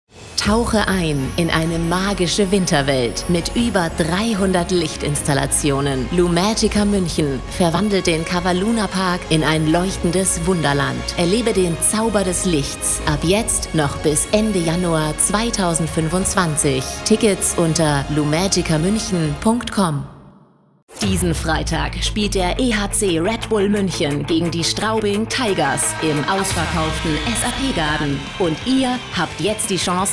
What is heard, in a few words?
Audiobook, German